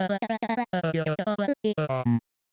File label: medium clear timid, voice archetypes; voxel audio preview